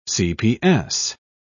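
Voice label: "cps", British English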